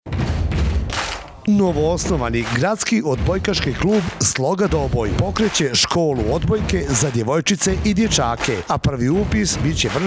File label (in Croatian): reklama